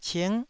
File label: "cing9", Taiwanese